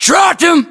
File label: inform killfirm infantry, US; localized english; battlechatter